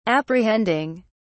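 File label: "apprehending", British English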